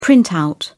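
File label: "printout", British English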